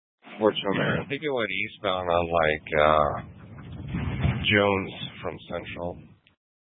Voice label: Police Dispatch